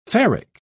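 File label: "ferric", English, British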